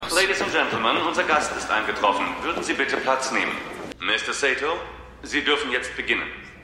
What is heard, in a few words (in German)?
Durchsage; Mission Erde